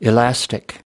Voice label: "elastic", us